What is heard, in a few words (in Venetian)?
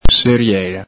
"seriela", Veneto